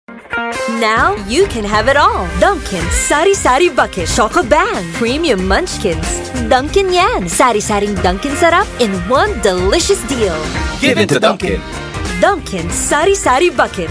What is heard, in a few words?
English (Fun)